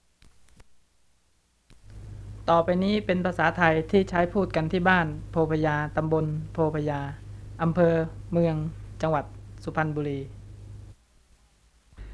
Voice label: THAI SUPANBURI Tones of Tai Dialects

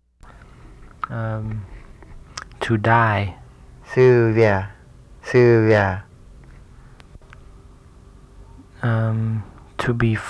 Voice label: Phunoi

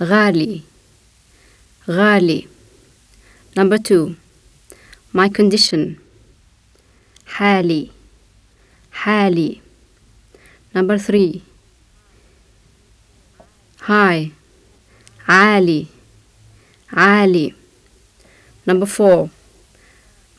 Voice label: Tripoli Arabic

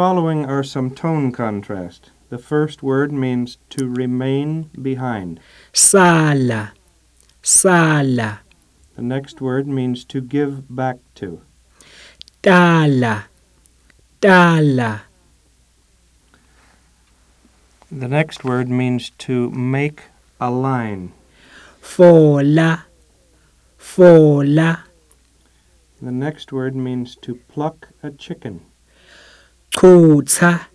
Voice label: Siswati

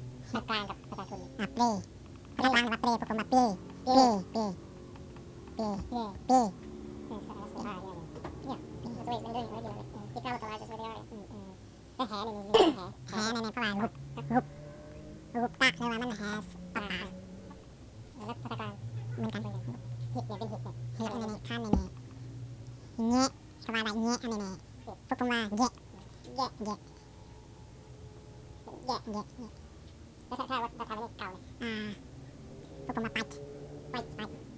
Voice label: Wa Word list

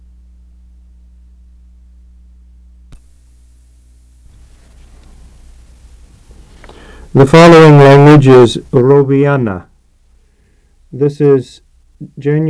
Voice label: Roviana consonants

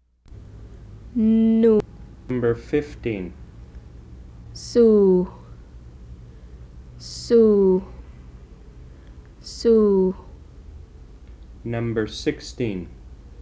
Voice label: Malay

